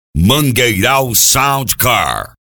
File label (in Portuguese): voz locutor sound car; mangueiral